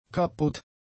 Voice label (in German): "kaputt", German; adjectives